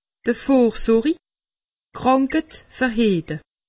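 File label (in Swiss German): "vorsori", Alsatian; medecine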